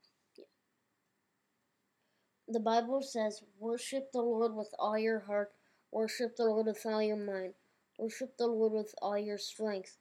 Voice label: the bible says, voice over; Shema Worship